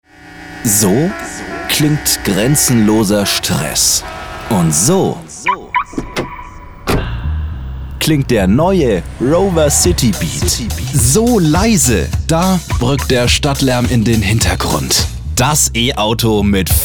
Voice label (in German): werbung technisch